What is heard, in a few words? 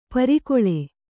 "ಪರಕಾಲ", Kannada